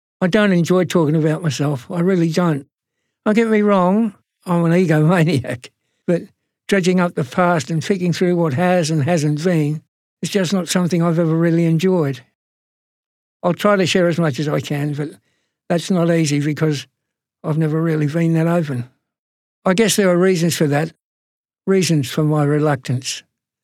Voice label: audiobook clip; The Voice Inside; John Farnham